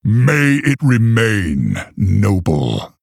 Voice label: Male Orc Greetings